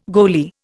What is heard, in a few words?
"goalie", Indian